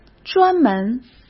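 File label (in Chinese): "专门", Mandarin Chinese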